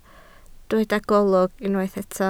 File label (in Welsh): "golwg", Welsh